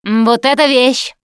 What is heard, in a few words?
grenade launcher, russian, Survivor Voice Producer